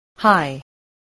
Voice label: "high", English, spelling